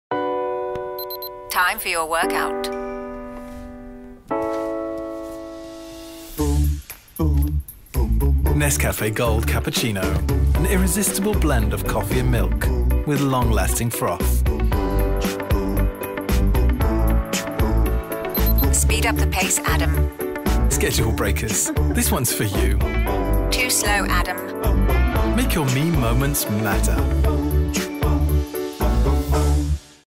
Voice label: H GB PUB music; Nescafé Gold Cappuccino

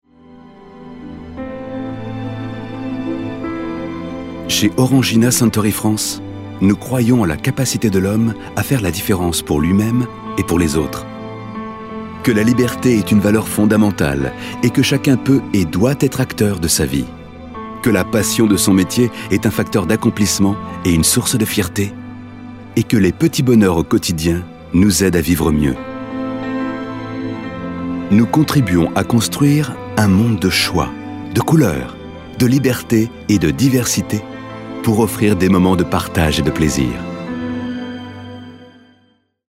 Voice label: corporate; Orangina; suntory

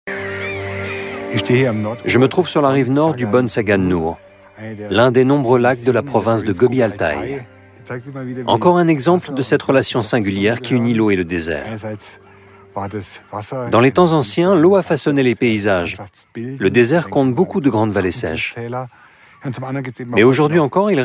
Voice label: DOC voice over; ARTE

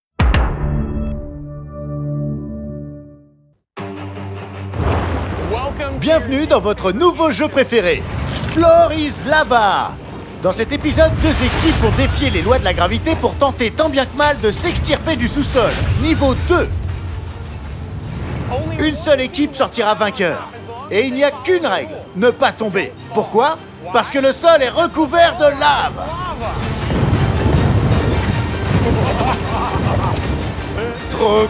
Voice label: voice over; Floor is Lava; NETFLIX; Voix de Rutledge Wood

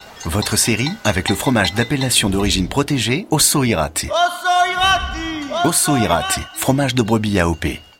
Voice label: Billboard; OSSAU IRATY

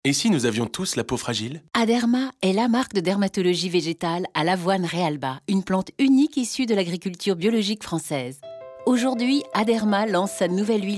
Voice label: Radio Music; Aderma

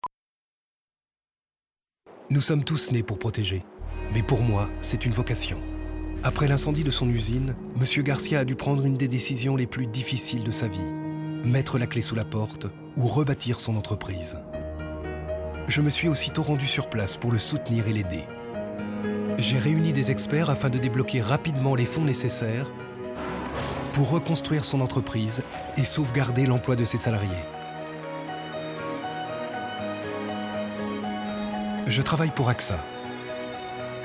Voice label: TV FR; AXA; Factory

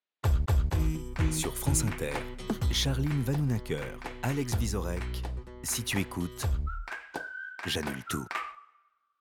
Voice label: JINGLE

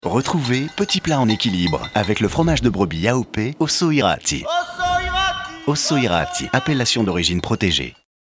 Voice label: Billboard; OSSAU IRATY

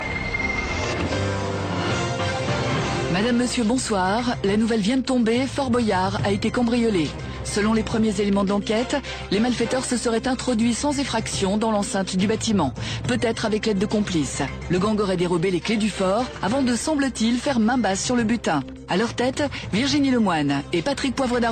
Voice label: breacking news; Fort Boyard

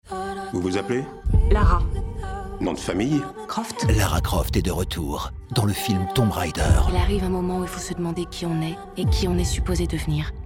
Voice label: Trailer; Tom Raider

